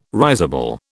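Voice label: "risible", British English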